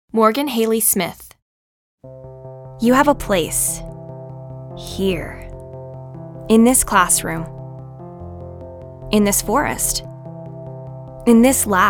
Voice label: Commercial